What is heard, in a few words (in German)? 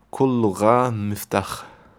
arabisch